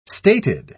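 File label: "stated", British English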